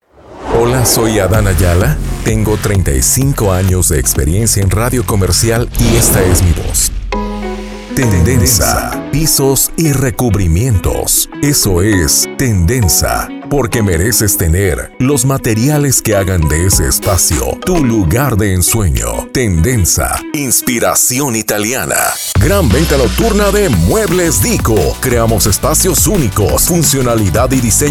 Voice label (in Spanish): spots, voces